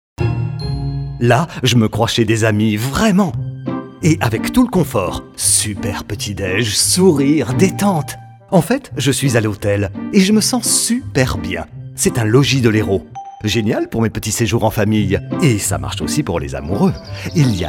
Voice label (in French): spot radio; logis